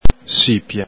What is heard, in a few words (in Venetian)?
"sipia", Veneto